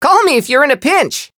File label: chant